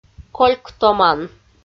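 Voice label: "кольк томан" Komi, female; audio dict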